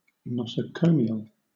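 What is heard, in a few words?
"nosocomial", British English; pronunciations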